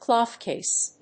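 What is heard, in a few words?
"clockface", English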